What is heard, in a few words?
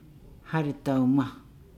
"church", Nukuoro